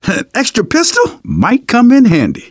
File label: take pistol, survivor voice; Left 4 Dead 2; left4dead2 dlc3